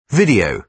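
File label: "video", British English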